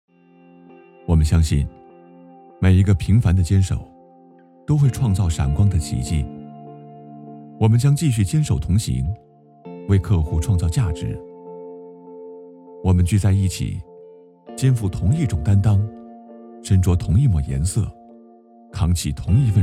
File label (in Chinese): 广告 配音